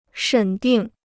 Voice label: "审定", Chinese

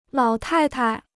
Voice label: "老太太", Chinese

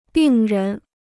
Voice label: "病人", Chinese

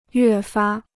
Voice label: "越发", Chinese

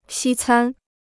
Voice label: "西餐", Chinese

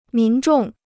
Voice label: "民众", Chinese

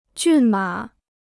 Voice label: "骏马", Chinese

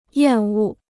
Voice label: "厌恶", Chinese

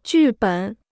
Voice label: "剧本", Chinese

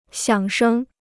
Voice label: "响声", Chinese

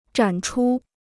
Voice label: "展出", Chinese

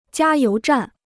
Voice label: "加油站", Chinese

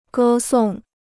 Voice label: "歌颂", Chinese

